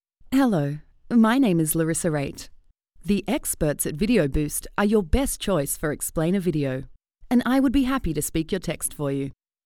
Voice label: British English, voiceover; internationale voiceover